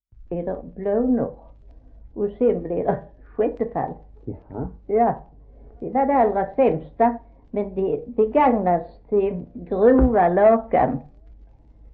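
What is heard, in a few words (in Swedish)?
Fleninge Skåne, dialekter; Folkminnen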